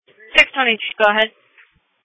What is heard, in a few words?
Sheriff Dispatch; sdr